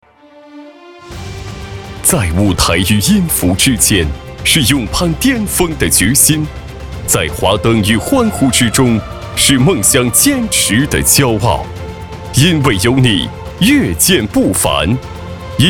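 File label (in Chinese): 男【颁奖】; 音雄争霸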